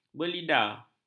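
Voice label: "belida", Malay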